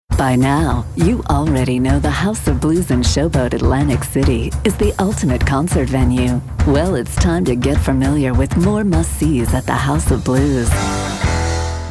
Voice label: Raspy